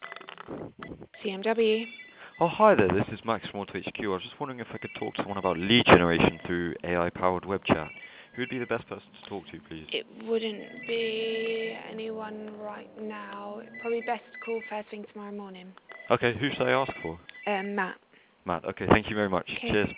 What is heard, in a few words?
call telesales